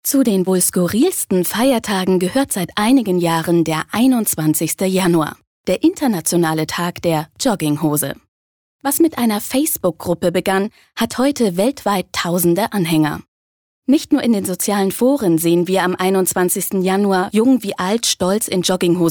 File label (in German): Freundlich; Feiertag